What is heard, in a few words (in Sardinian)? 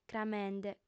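"cramende", female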